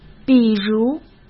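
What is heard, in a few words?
"比如", Mandarin Chinese; hsk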